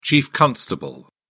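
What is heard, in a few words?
"chief constable", British English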